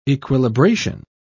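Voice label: "equilibration", British English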